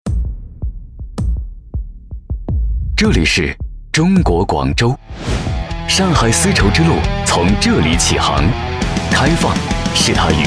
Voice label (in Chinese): 男 科技感宣传; 奥新合作理事会